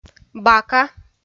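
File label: "бака", Komi, female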